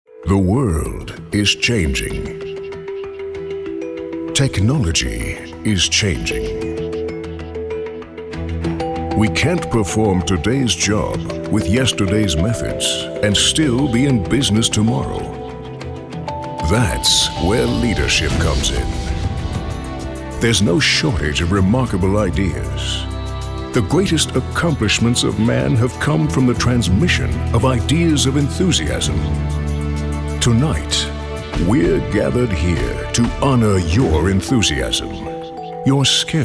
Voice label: INSPIRATIONAL

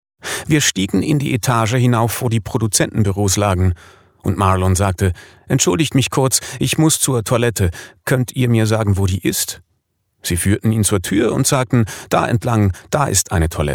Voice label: Voice Over

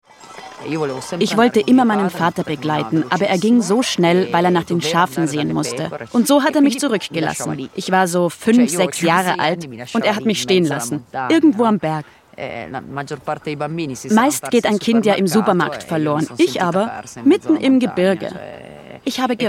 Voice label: Voiceover

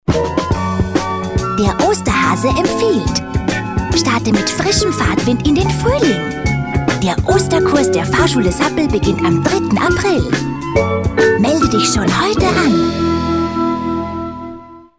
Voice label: Comic

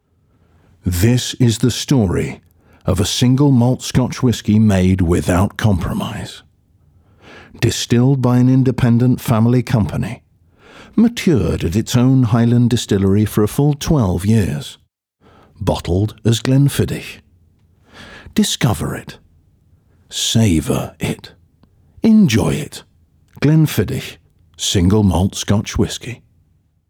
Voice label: E uk; Glennfidich

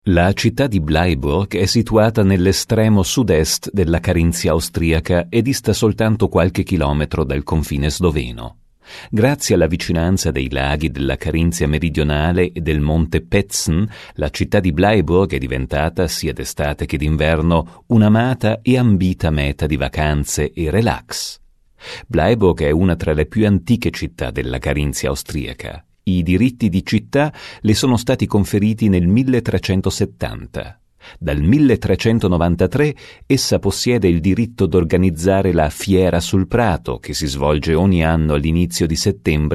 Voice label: Audioguide ITA

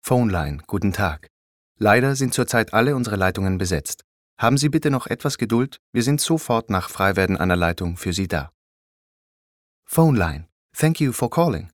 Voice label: Phoneline

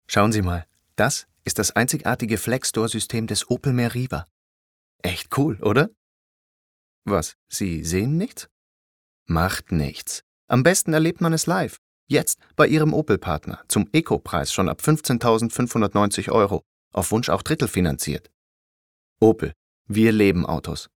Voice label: Spot; OPEL

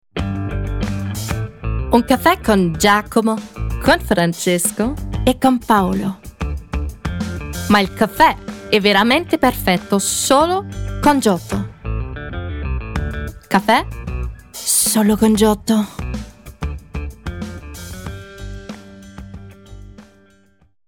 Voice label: Spot; Giotto

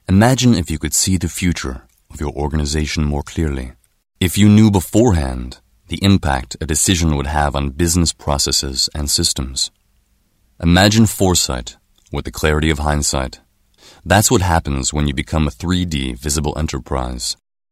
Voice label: doku us

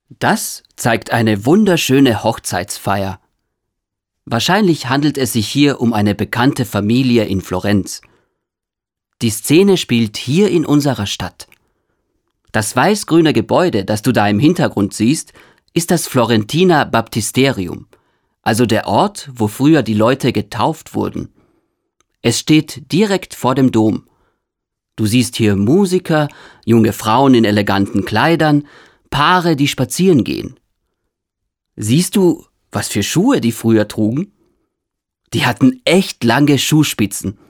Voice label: Audioguide

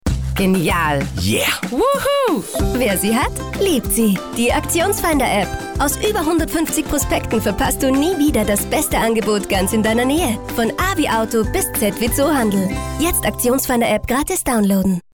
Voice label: Voice Over Spot; Aktionsfinder

